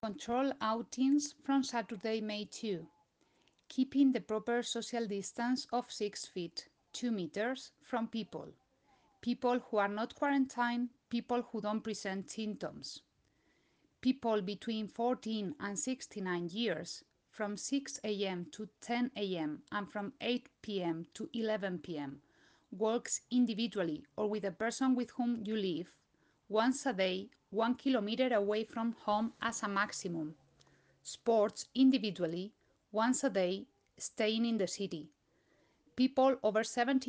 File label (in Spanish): ingles; Tejiendo Redes